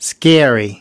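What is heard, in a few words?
"scary", English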